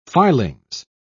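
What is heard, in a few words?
"filings", British English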